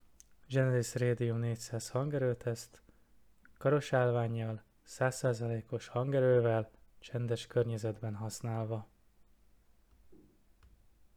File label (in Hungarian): Radium 400 csendes, mikrofon teszt; Genesis Radium 400; csendes környezet; Ez a minta egy egész, de nem teljesen csendes környezetben készült. Nem túlzás azt mondani, hogy kifejezetten jó minőség produkál.; Hangminőség teszt: zajosabb környezet